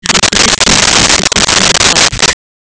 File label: speech nav